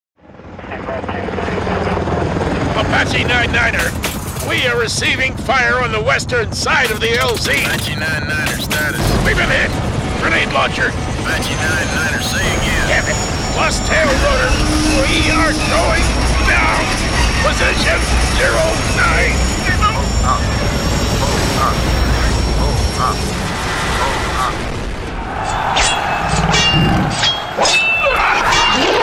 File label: Video Games, deep